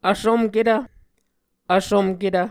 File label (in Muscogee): "vsumketv", Muscogee